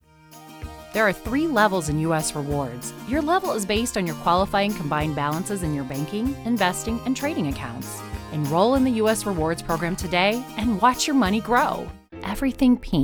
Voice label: Corporate